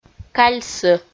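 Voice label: "кальсы", Komi, female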